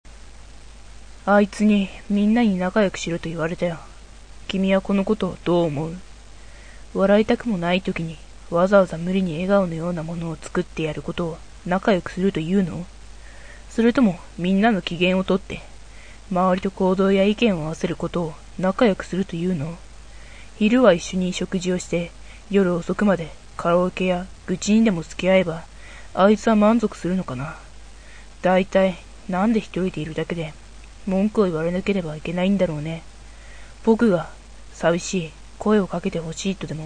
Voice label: boy; アレン・ウォーカー